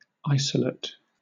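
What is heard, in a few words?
"isolate", British English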